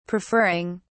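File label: "preferring", English, British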